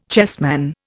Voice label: "chessman", Indian